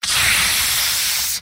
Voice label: jeers; demoman; mvm